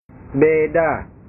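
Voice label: "beda", Indonesian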